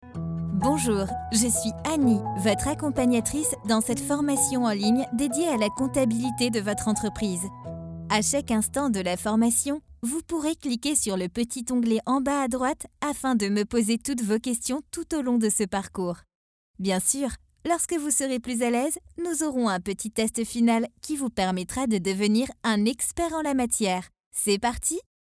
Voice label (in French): E-learning; Linguistica; Voix avenante, didactique